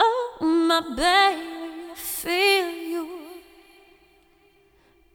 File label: Mixed Berry Jam Voice, 70s Analog Funk; Sonic Foundry; What it Is! 70s Analog Funk